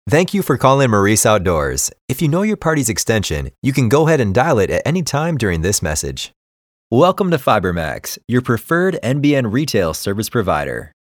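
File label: US PHONE, American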